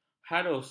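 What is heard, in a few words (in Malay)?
"harus", Malay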